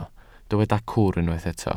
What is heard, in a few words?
"cwr", Welsh